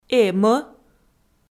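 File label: "эм-ӧ", Komi, female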